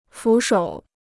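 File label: "俯首", Chinese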